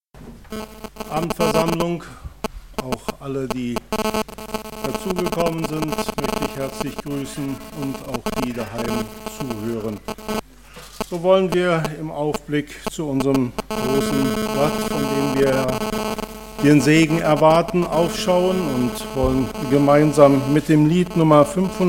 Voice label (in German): Ansage; Leid tragen und Sorge für Christus